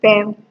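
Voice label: "pem", Nigerian Pidgin, female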